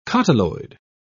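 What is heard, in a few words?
"cotyloid", British English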